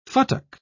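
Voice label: "futtock", British English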